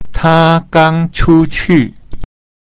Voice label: ta gang chu qu, chinese